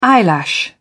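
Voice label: "eyelash", British English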